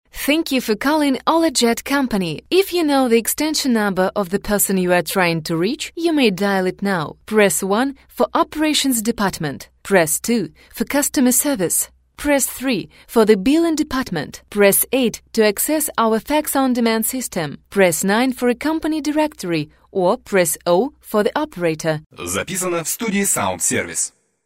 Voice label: ivr